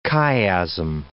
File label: "chiasm", English